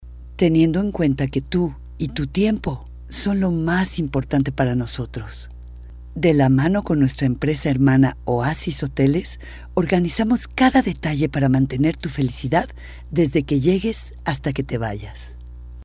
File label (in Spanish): Spanish female